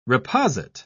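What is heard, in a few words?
"reposit", British English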